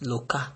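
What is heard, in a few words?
"loka", Nigerian Pidgin, male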